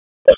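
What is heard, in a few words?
Wake County EMS Dispatch, p25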